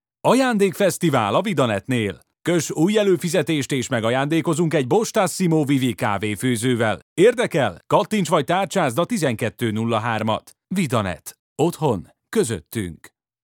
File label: Hungarian commercial